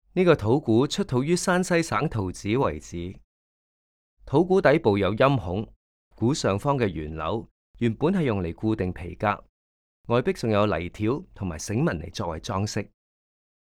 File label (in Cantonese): Can VO; audio guide